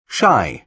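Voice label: "shy", British English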